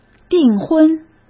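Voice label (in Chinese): "订婚", Mandarin Chinese; chinese flashcards; hsk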